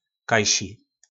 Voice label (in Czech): "Kajši", Slovník nářečí; Někde; Kajši to beje.; nářečí Po našimu